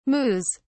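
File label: "moos", British English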